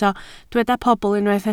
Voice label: "pobl", Welsh

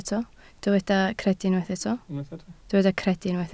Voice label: "credu", Welsh

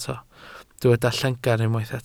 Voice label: "llengar" Welsh

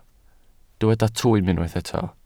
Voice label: "twym", Welsh

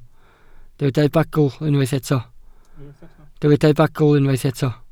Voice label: "bagl", Welsh

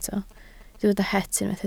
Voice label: "het" Welsh

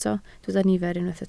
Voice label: "nifer" Welsh

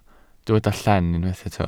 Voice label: "llen", Welsh

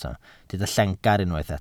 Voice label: "llengar" Welsh

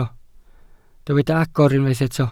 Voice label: "agor", Welsh

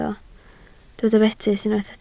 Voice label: "betys", Welsh

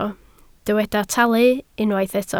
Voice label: "talu" Welsh

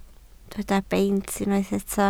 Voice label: "beit", Welsh

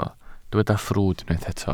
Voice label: "ffrwd" Welsh

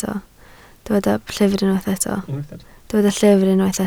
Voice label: "llyfr", Welsh

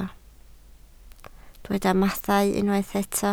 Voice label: "mathau", Welsh

